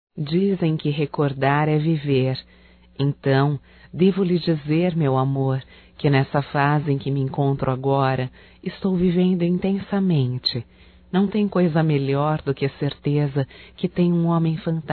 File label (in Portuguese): LEMBRANÇAS (F), Telemensagens Românticas fem